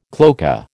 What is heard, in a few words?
"cloaca", American